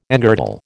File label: "engirdle", American